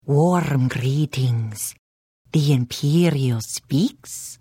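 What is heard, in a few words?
Hello, Khajiit female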